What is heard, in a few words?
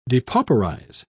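"depauperize", British English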